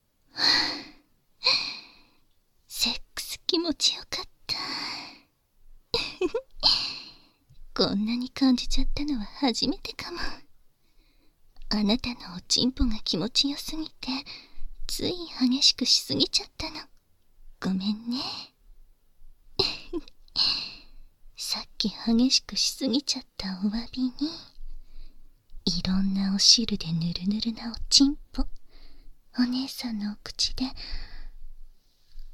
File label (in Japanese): 両耳触手 フェラ（バイノーラルマイク 効果音あり）, ASMR